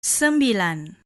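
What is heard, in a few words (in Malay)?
"sembilan", Malay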